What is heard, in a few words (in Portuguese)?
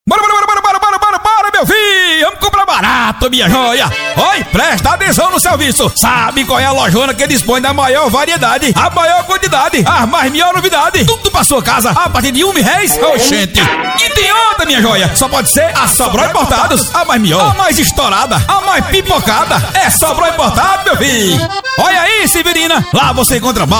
varejo caricato